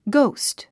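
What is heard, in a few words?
"ghost", English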